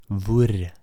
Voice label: "Hvor", Norwegian

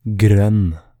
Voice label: "Grønn", Norwegian

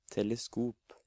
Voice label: "Teleskop", Norwegian

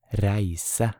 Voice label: "Reise", Norwegian

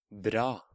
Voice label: "Bra", Norwegian

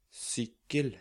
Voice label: "Sykkel", Norwegian

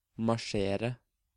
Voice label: "Marsjere", Norwegian

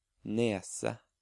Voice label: "Nese", Norwegian

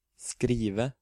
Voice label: "Skrive", Norwegian

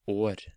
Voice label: "År", Norwegian

